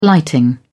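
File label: "lighting", English, British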